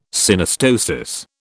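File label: "Synostosis", British English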